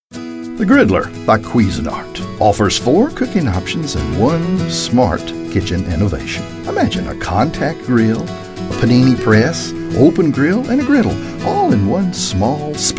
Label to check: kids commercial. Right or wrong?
Wrong